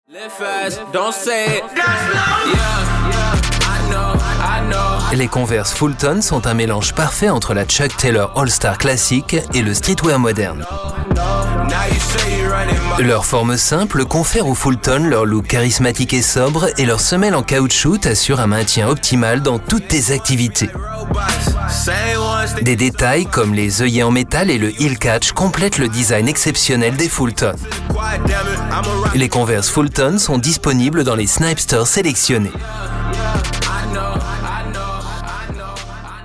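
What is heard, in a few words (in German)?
French, Fremdtake Neutral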